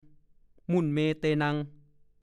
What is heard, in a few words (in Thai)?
"มุ่นเมเตนัง", ภาษาโคราช; แหลกละเอียดจนไม่รู้ว่าเป็นชิ้นไหนเป็นอันไหน